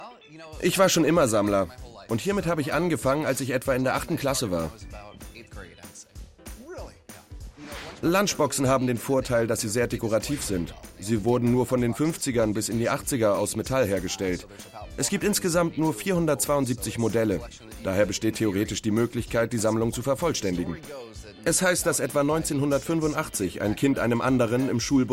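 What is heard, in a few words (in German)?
voiceover, deutsch männer; sprecher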